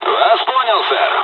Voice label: dialogs russian; War Thunder